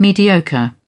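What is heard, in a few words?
"mediocre", British English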